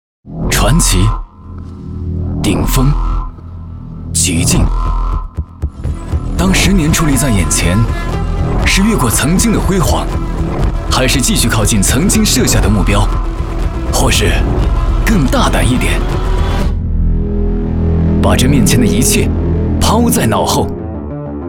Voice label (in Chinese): 男, LOL全球总决赛